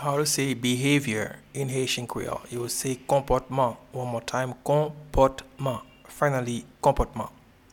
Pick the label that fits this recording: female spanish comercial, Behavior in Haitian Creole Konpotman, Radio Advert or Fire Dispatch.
Behavior in Haitian Creole Konpotman